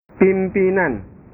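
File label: "pimpinan", Indonesian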